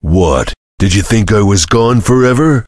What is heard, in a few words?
chitchat; svencoop; voice commands; Duke